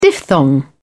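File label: "diphthong", British English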